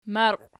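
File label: "marqiw" nominative, Archi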